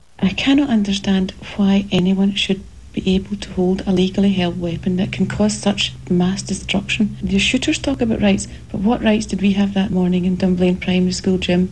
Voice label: Scottish, dialect

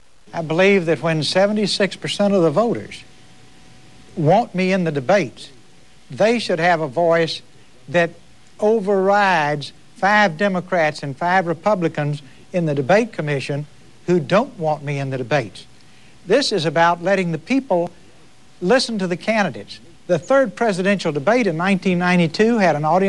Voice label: Texas, dialect; Ross Perot

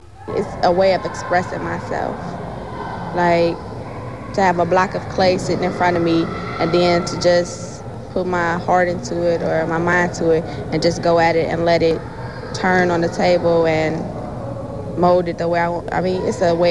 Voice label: AAE Cleveland, African American

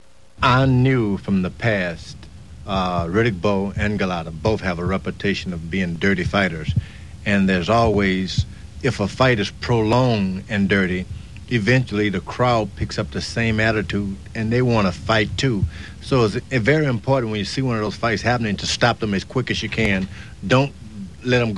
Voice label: AAE, African American; dialect; George Foreman

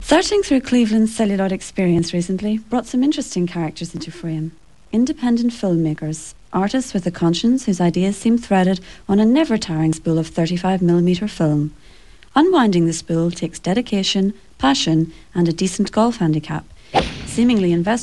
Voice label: Irish

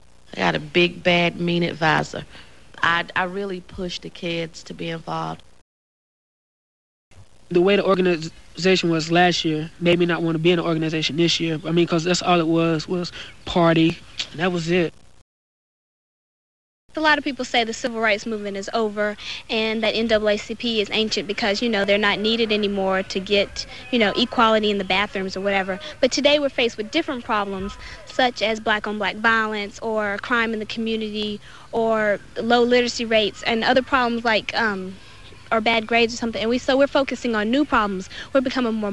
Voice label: AAE 3 teenagers, African American

